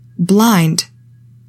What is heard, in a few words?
"BLIND", english; 3000 words